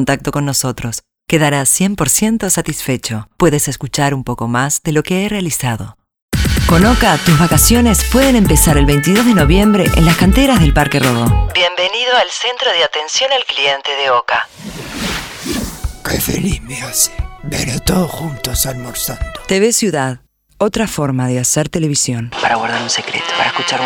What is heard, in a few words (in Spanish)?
Locutora